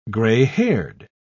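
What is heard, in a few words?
"grey-haired", English, British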